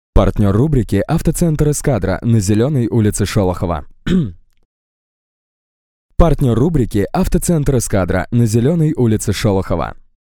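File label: Russian, male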